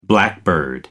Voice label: "Blackbird" english